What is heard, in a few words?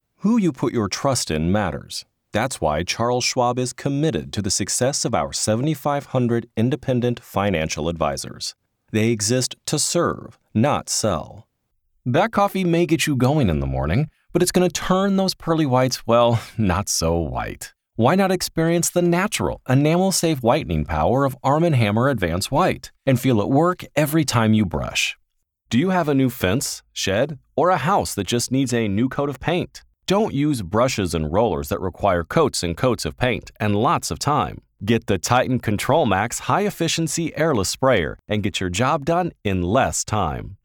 Commercial